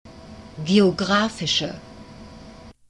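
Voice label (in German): "geographische", German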